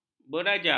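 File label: "beraja", Malay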